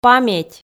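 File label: "паметь", Komi, female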